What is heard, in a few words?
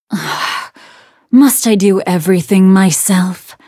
battle en